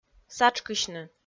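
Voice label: "сатшкысьны", Komi, female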